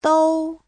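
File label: "dōu", Mandarin Chinese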